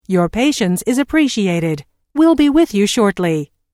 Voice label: Telephone prompt: Your patience is appreciated we will be with you shortly, Recorded Voice